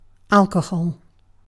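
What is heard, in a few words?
"alcohol" British English; pronunciations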